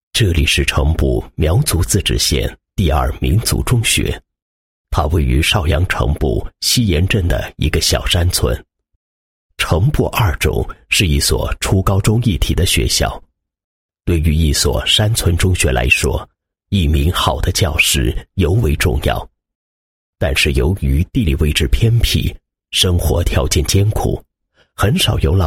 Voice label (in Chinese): 男 专题 纪录片干声, 国语男声配音